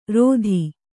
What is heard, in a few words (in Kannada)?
"ರೋಧಿ", kannada